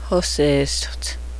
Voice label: earrings, cheyenne

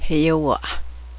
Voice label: yellow horse, cheyenne language

